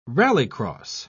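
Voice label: "rallycross", British English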